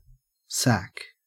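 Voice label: "sack", Australian English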